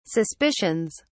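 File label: "suspicions", British English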